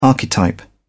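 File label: "archetype", English, British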